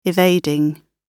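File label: "evading", British English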